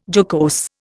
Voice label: "jocose", Indian